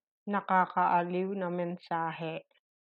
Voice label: tagalog